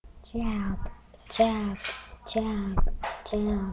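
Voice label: "jab", spoken word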